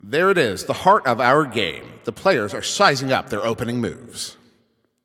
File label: Ball Placed in Start Ring, Announcer